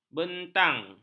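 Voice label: "bentang", Malay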